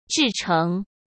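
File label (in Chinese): "制成", Mandarin Chinese; hsk; chinese-flashcards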